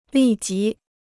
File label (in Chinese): "立即", Chinese